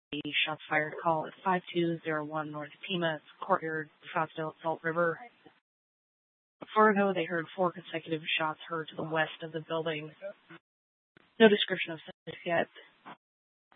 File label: PD Dispatch